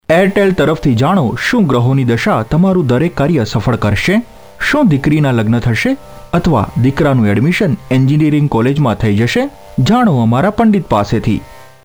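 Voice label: Gujarati male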